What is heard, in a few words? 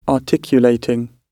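"articulating", British English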